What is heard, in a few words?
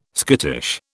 "skittish", British